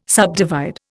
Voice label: "subdivide", Indian

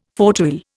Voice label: "fauteuil", Indian

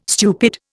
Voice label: "stupid", Indian

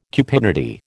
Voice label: "cupidity", American

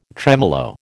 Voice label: "tremolo", American

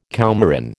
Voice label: "coumarin", American

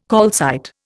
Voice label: "calcite", Indian

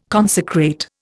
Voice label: "consecrate", Indian

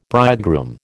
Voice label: "bridegroom", American

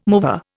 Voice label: "mover", Indian

